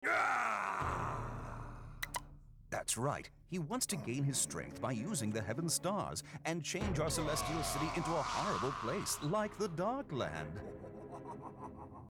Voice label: anime

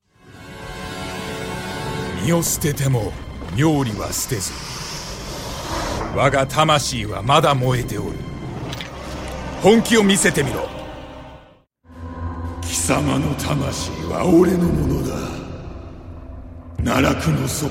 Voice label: ja char

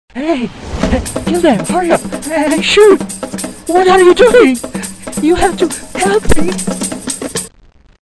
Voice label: english

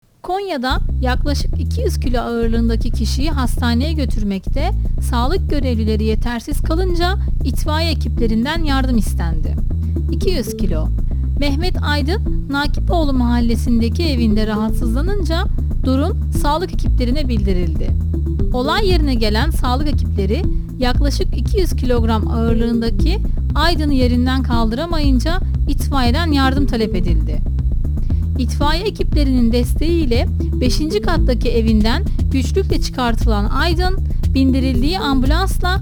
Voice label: news

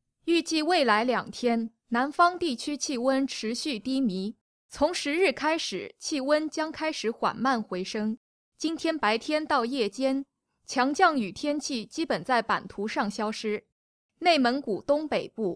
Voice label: news

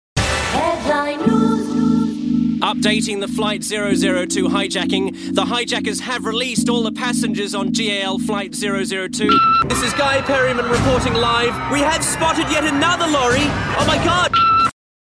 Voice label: news

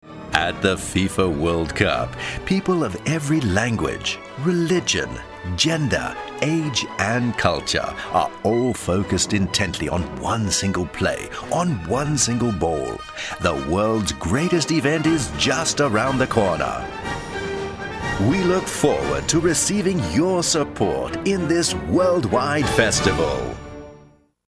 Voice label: uk

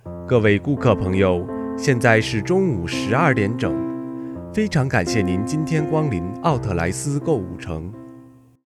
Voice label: announce

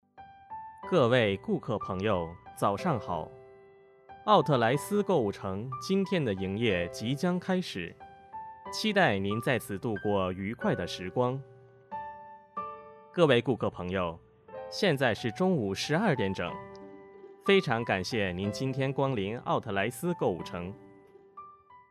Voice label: announce

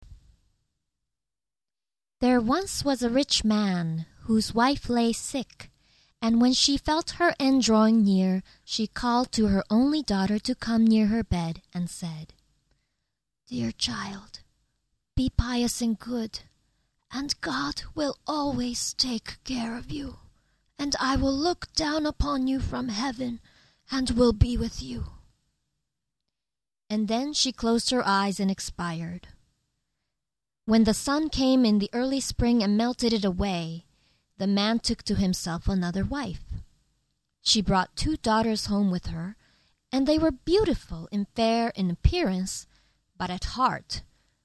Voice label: story

